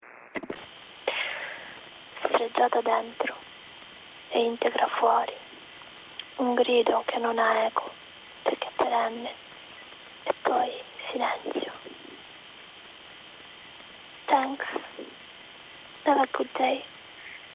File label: italian